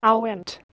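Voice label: "h2weh1nt", Proto-Indo-European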